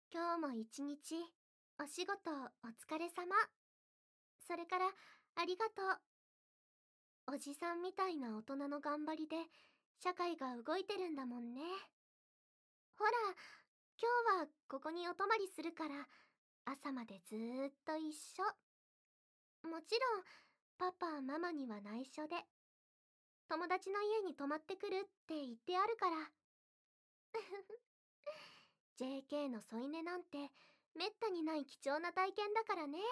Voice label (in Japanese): おやすみボイス